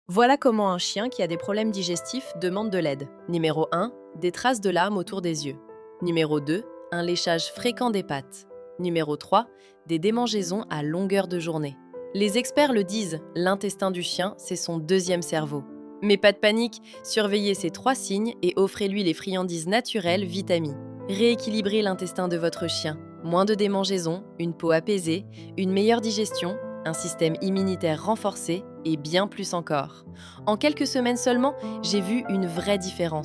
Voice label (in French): voix off complete